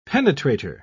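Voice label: "penetrator", English, British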